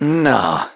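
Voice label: Vox Deny, English; Evan; kings raid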